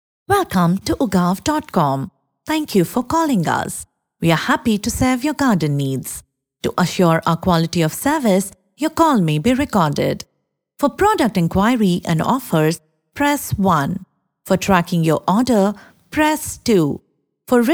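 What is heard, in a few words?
IVR English; Ugaao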